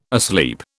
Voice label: "asleep", British